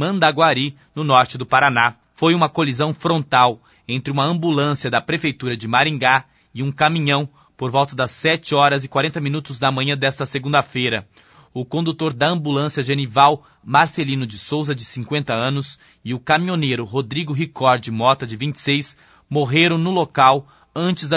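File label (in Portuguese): boletim acidente ambulancia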